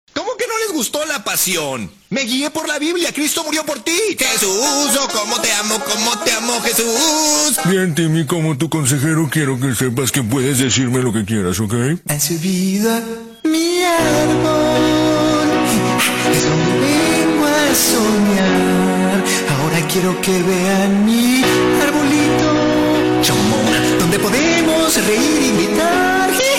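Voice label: Male Voice Over Talents Spanish Animation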